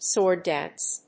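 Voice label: "sword dance", English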